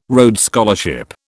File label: "Rhodes Scholarship", British